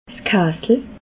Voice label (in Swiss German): "kasel", alsace